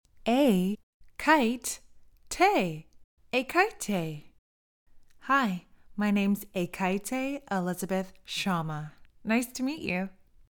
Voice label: Pronounce Ekaette